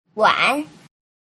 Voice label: "wan", mandarin